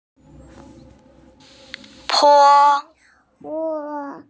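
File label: "po1", Mandarin Chinese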